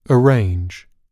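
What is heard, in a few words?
"arrange", British English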